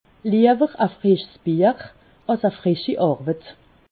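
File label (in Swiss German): "frischsbier", Haut-Rhin; plutôt une bière fraîche qu’un nouveau travail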